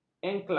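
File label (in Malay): "enklaf", Malay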